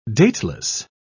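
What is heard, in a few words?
"dateless", English, British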